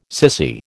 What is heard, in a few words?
"sissy", American